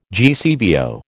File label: "GCVO", American